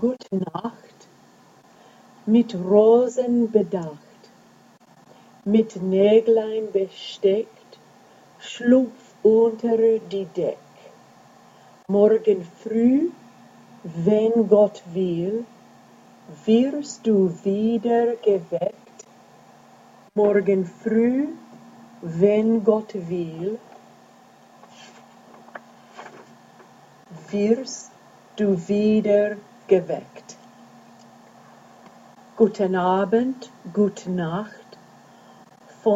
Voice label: Wiegenlied pronunciation